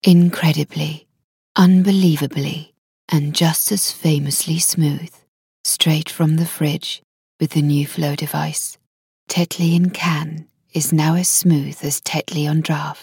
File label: Commercial beer